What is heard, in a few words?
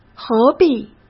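"何必" Mandarin Chinese; hsk; chinese-flashcards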